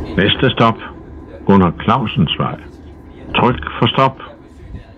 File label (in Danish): Gunnar Clausens Vej, toglyde